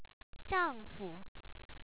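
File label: "zha4ngfu", Chinese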